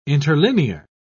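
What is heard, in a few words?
"interlinear", English, British English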